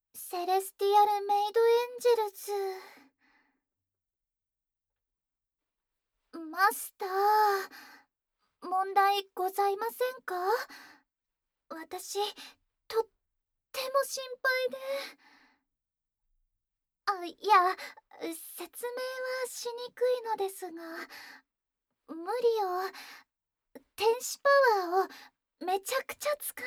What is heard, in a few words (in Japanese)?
天使語囁きで一緒に添い寝; Cure App〜見習いメイド天使ちゃんには癒しの幸せパワーが必要なのです!〜